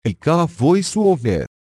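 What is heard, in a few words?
Arabic VoiceOver Off; EFI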